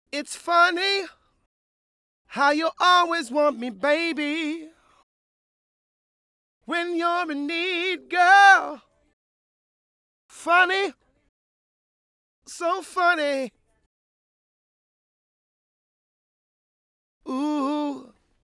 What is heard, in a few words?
Dmin Male adlibs